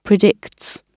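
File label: "predicts", British English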